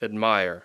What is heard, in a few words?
"admire", English